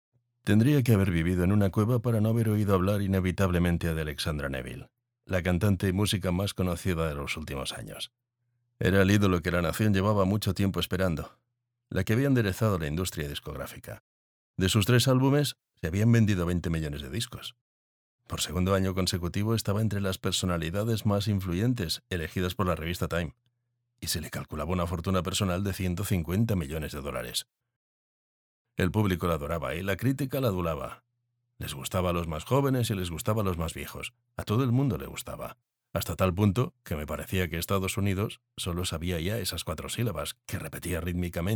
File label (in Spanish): audiolibro